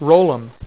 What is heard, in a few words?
"ROLL 'EM", Dealer's Speech; PowerPOKER